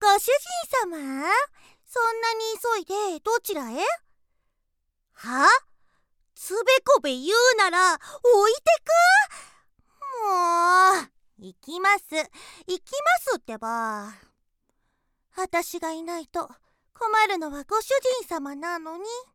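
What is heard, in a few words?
girl, Japanese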